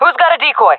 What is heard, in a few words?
request decoy, jungle fem; player vo jungle fem; Counter Strike Model Pack; CS:GO